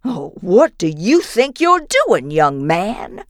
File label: female ethnic busted; Voices; lucius ii the prophecy